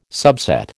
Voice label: "subset", American